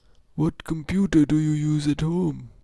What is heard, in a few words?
oldman third dialogue